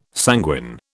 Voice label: "sanguine", British